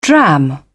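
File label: "dram", British English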